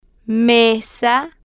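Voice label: "mesa", Spanish, female, slow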